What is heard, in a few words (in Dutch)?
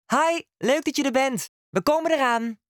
Ring Nederlandse snelle antwoorden